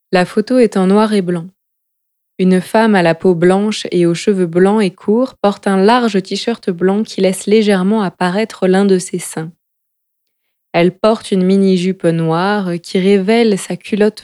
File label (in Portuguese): AD feminino